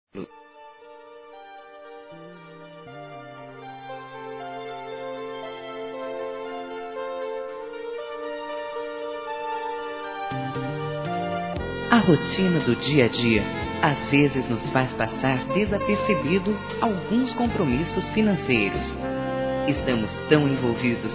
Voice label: COBRANÇA COMERCIAL, fem, Telemensagens Empresarial; Telemensagens 2017

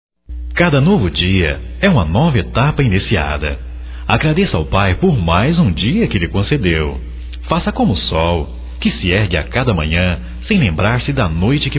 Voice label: Você é Especial Masculino, Só Voz; Telemensagens; Telemensagens 2017

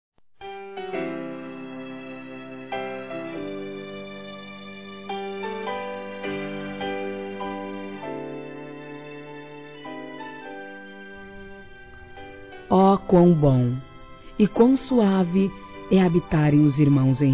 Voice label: Trilha AGRADEC. PELA VISITA F, Telemensagens Gospel fem; Telemensagens 2017

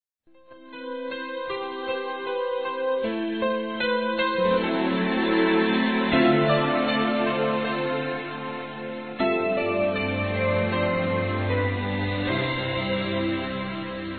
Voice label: Aniversário Sobrinha Masculino; Aniv Familiar

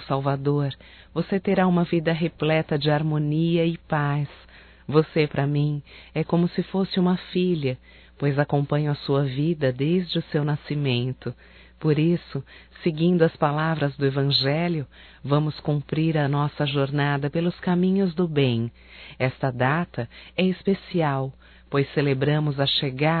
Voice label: Natal e Ano Novo Sobrinha Feminino; Telemensagens 2017

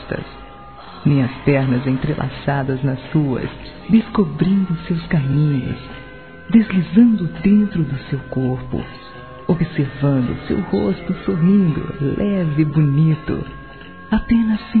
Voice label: Hoje Eu Não Quero Palavras, Telemensagens Sensuais fem

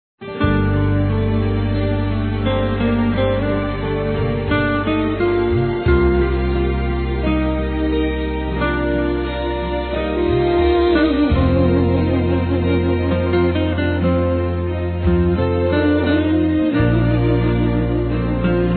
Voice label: Homenagem Nosso Noivado Feminino

